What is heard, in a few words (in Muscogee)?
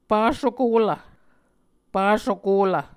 "pasokolv", Muscogee